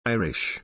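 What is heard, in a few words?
"irish", English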